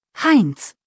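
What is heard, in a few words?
"Heinz", German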